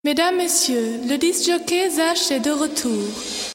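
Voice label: Female Voice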